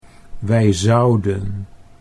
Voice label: "wij zouden", Dutch

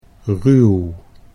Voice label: "ruw", Dutch

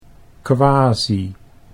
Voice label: "quasi", Dutch

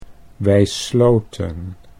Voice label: wij sloten, Dutch

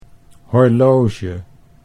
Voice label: "horloge", Dutch; sieraden

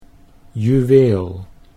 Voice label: "juweel", Dutch

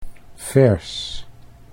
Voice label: "vers", Dutch

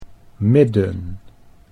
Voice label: "midden", Dutch

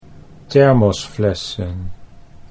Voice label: "thermosflessen", Dutch

